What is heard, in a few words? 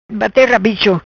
"Bate Rabicho", Macanese; to braid hair